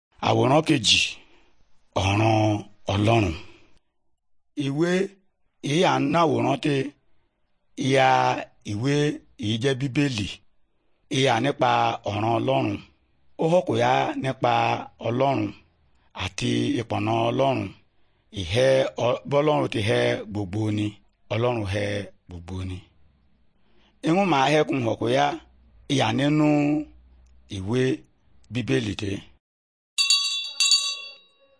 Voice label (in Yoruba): good news yoruba, bible